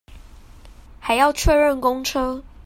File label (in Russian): Тайваньский; commonvoice